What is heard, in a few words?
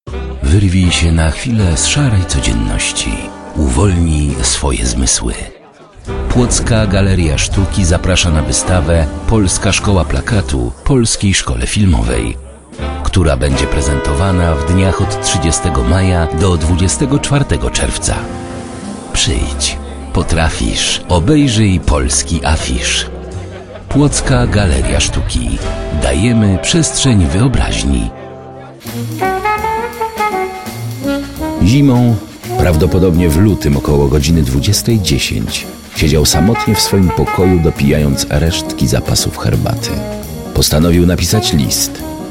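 voice male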